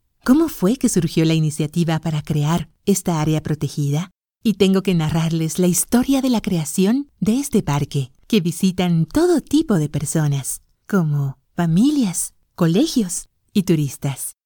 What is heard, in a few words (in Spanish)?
locutora audiolibro